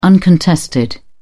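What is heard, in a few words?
"uncontested", British English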